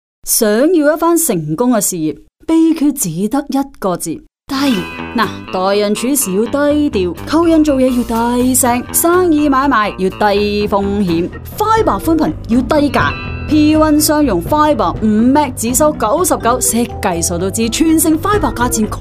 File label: Canto; Forbiz Fiber